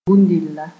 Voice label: "bũndila", moore

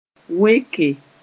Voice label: "weke", moore

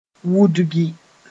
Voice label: "wudgi", moore; to run

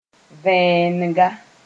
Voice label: "vẽenega", moore

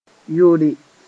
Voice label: "yore", moore

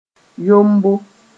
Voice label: "yombo", moore

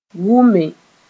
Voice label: "wʋme", moore

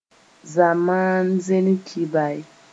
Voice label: "zamaan-zẽns kibay", moore

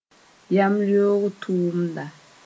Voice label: "yamleoog tʋmda", moore

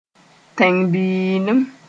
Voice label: "tẽng-n-biilim", Moore

